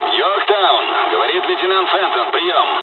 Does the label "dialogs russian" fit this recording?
Yes